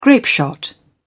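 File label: "grapeshot", British English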